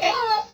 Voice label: grunt